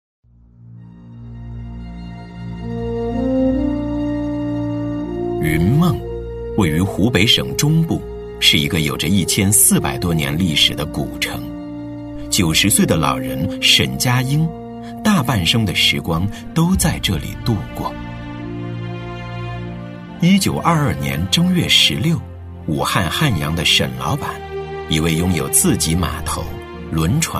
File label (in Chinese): 男 人物宣传片 磁性抒情; 男83-磁性低沉; 质感磁性